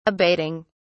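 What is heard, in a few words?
"abating", British English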